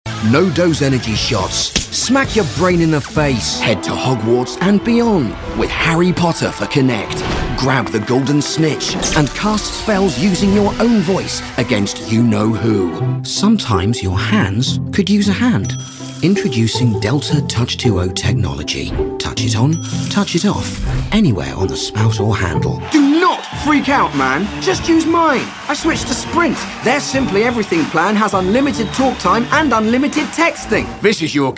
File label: Commercials Mix